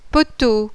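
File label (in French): "POTEAU", French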